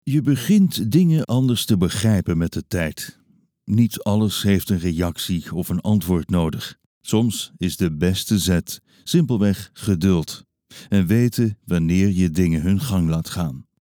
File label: dutch impersonations